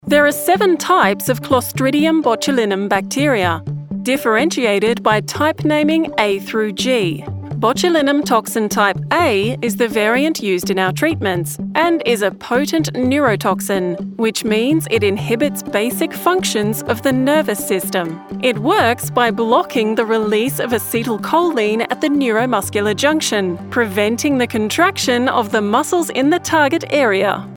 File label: Botulinim Toxin, medical narration